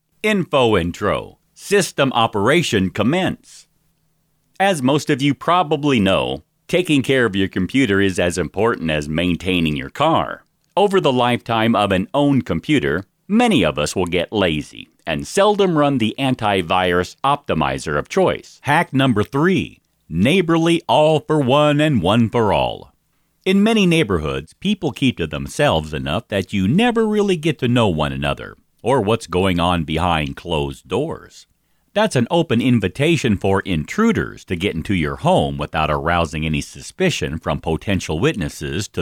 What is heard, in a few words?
eLearning Male; commercial voiceover